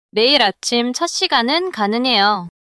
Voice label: neutral